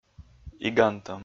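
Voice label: "игантӧм", Komi, male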